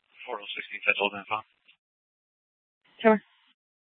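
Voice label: police dispatch, Scottsdale PD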